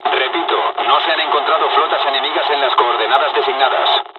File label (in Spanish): dialogs spanish; War Thunder